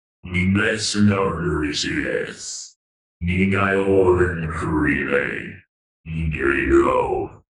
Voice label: futuristic voice saying